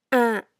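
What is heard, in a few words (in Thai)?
"อะ", Thai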